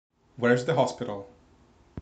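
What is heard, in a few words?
"hospital", English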